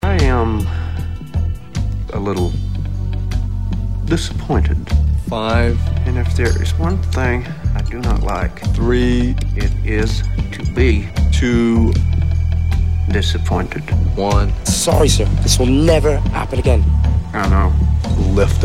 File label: Dissapointed; Zorg